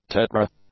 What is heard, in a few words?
"tetra", english; nouns